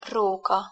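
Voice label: "roka", Hungarian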